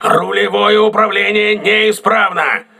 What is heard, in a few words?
voice message rudder damaged, crew ships russian